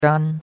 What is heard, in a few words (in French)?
"gan1", chinois; Cours-de-Chinois